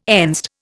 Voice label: "Enzed", Indian

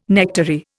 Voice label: "nectary", Indian

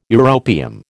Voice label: "europium", American

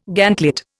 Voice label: "gantlet", Indian

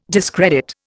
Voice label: "discredit", Indian

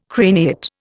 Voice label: "craniate", Indian

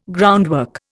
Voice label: "groundwork", Indian

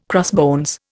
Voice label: "crossbones", Indian

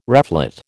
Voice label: "reflate", American

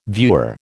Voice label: "viewer", American

